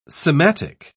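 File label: "sematic", British English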